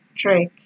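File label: "trek", Nigerian Pidgin, female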